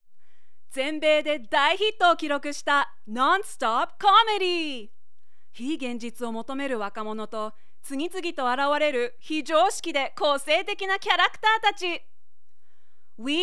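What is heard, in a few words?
Narration, Japanese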